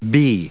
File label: "bee", en us